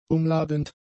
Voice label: "umladend", German; adjectives